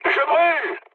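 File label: French on fire mood low; War Thunder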